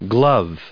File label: "glove", us